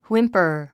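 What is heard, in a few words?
"whimper", English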